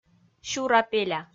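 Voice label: "сюра-пеля", Komi, female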